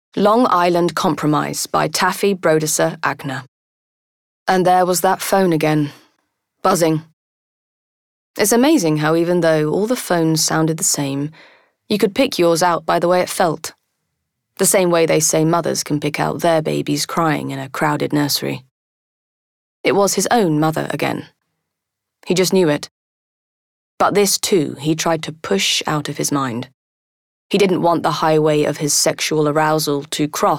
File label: Audiobook; Long Island Compromise